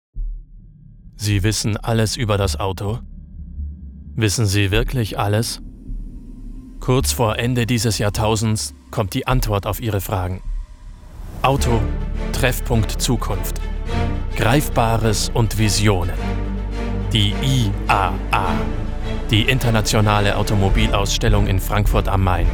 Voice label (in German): Werbung; IAA